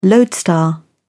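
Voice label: "lodestar", English, British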